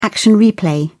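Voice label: "action replay", British English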